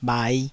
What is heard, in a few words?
"bhai5", taiwanese